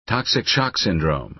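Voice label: "toxic shock syndrome", American English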